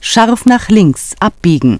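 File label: scharf nach links abbiegen, German; Navigation; DISTEFORA Navigation; Mobile Navigator Notebook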